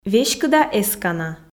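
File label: веськыда эскана, Komi, female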